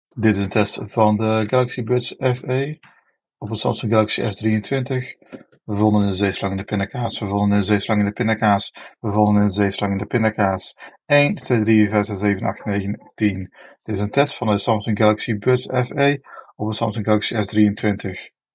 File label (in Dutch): Mictest